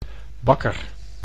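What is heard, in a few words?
"bakker", Dutch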